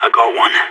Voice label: enemy down, radio; SAS

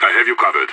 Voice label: covering, radio; IDF

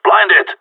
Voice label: flashed, radio; GSG9

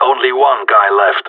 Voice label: one enemy left, radio; GSG9

